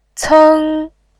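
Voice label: "ceng", Mandarin Chinese, first tone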